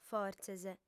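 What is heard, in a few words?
"fortzes", female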